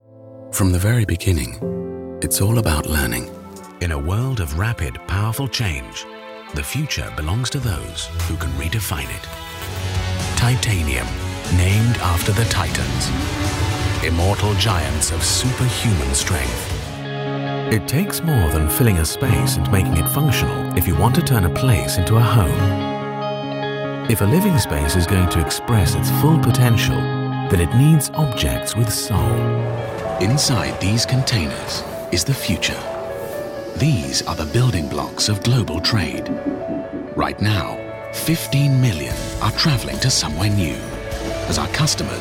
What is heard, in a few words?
Corporate